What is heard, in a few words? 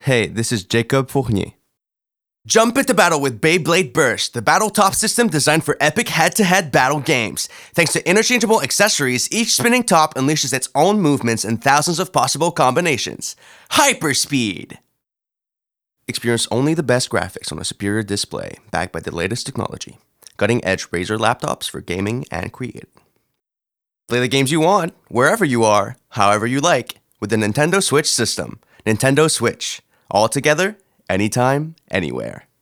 Commercials ENG